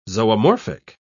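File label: "zoomorphic", British English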